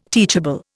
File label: "teachable", Indian